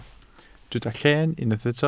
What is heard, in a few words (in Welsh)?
"llen", Welsh